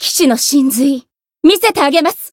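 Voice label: Voice chant, Japanese; anaberu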